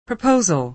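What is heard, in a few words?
"proposal", American English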